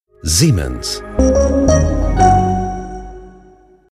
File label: Logo Voice male; Siemens